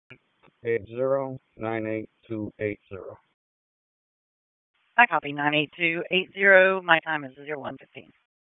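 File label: Police Dispatch, p25; Raleigh; Northwest District; wake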